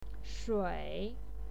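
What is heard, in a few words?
"shui", Mandarin Chinese, third tone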